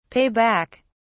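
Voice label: "pay back", British English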